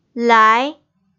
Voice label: "lai2", Pinyin, Chinese